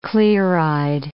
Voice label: "clear-eyed", English